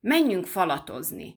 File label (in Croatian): mađarski; POP UP Zagreb